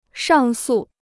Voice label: "上诉", Chinese

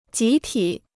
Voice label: "集体", Chinese

